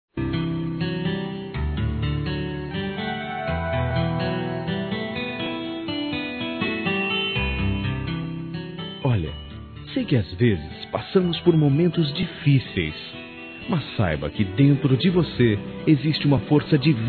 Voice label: OTIMISMO M

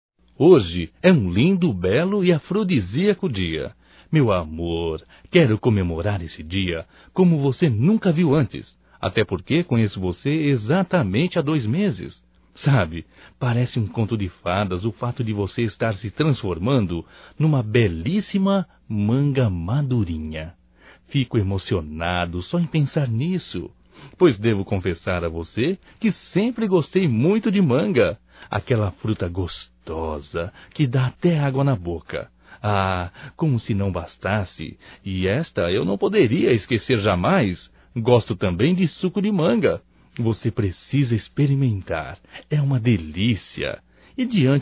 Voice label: Gozação ANIV Romântico M, Telemensagens; Telemensagens 2017; Aniversarios

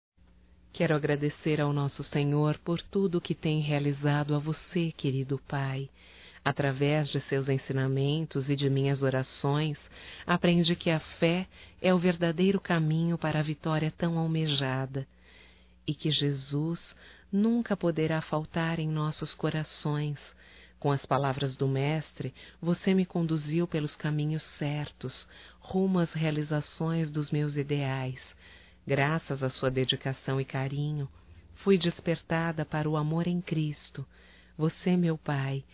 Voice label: EVAN PAI (F); Telemensagens 2017

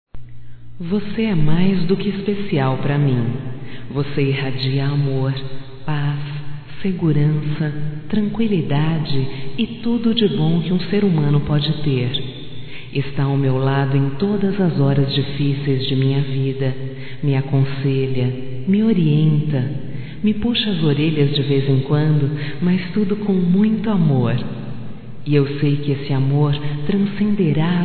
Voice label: AGRAD DE PAI, fem, Só Voz; Telemensagens; AGRADECIMENTOS

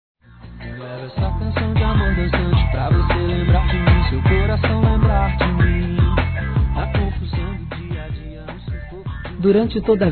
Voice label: Casamento Feminino; Telemensagens; Parabenização

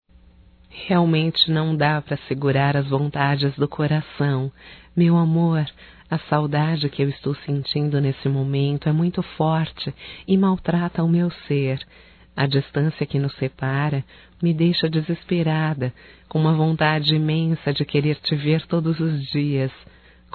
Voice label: SAUDADES ROMÂNTICA (F), Telemensagens; Românticas

